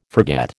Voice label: "forget", American